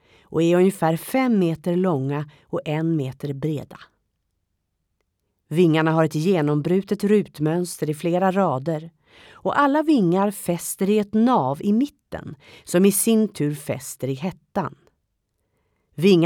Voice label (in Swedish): syntolkning kvarnen; uto